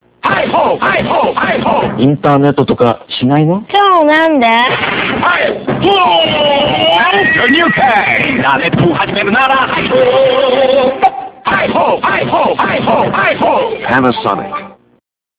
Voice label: cm Panasonic